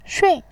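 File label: "xun", Mandarin Chinese, tone 4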